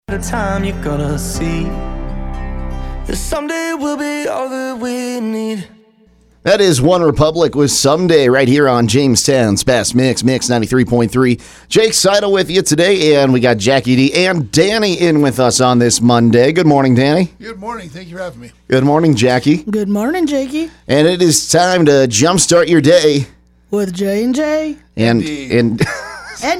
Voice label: Air Check